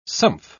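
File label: "sumph", British English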